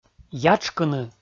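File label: "ятшкыны", Komi, male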